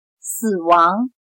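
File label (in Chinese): "死亡", Chinese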